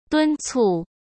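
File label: "敦促", Mandarin Chinese; hsk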